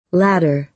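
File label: "ladder", American English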